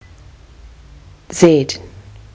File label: "zed", Kiwi English